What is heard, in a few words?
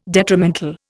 "detrimental", Indian